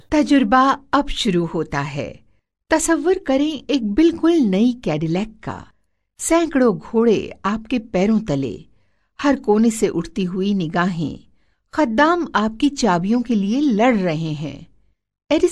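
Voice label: Urdu commercial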